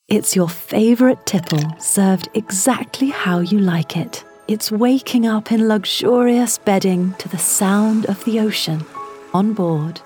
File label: Commercial Showreel